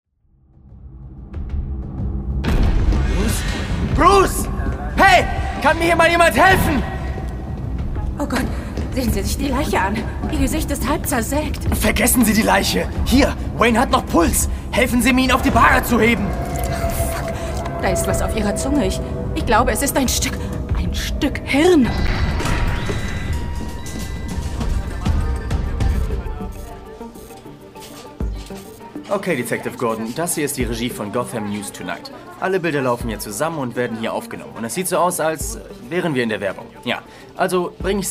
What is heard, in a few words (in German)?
Hörspiel; Batman Unter Toten